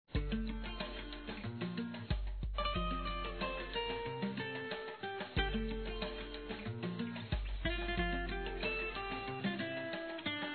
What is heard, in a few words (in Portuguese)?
Saudades Românticas Feminino; Telemensagens